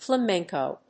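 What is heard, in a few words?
"flamenco", English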